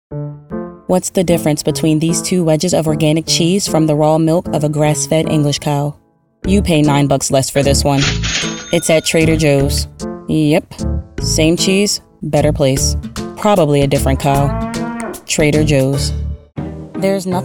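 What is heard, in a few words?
COMMERCIAL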